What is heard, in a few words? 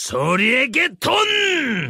ultimate korean voiceove; yasuo